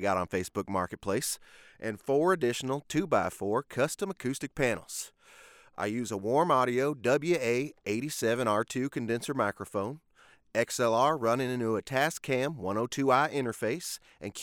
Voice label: RAW Home Studio Sample, Professional Male Voice Artist